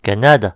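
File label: "canada", Breton; gcompris; geography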